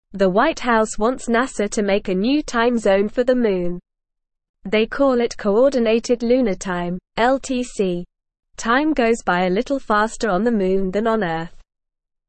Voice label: English Newsroom Beginner NORMAL Reading; Moon Time A New Time Zone for Space